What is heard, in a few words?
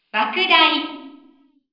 F reverberated